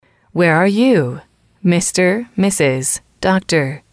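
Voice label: where are you Mr Mrs Dr, female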